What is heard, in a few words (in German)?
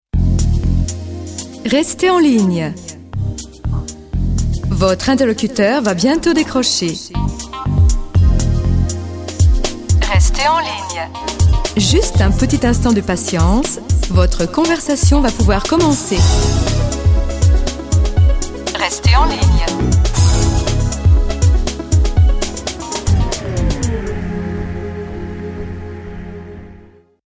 Telefonansage neutral; Telefonwarteschleife; französisch